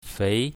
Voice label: "fei2", Mandarin; drinks

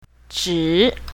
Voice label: "zhi3", Mandarin; classroom

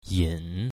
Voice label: "yin3", Mandarin; drinks

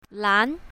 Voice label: "lan2", Mandarin; colors

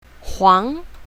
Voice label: "huang2", Mandarin; colors

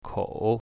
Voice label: "kou3", chinese, mandarin

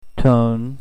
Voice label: tone dipping, mandarin; pinyin; tones

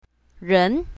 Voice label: "ren2", pinyin, Mandarin

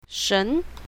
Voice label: "shen2", Mandarin; introductions

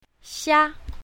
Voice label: "xia1", Mandarin; animals

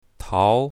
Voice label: "tao2", Mandarin

